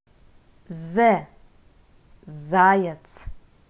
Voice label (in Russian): "з", Russian